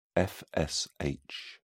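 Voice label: "fsh", British English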